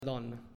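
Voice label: "Donna", Italian, male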